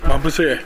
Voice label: "matupoe", minamiyamagata